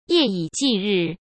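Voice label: "夜以继日", Mandarin Chinese; hsk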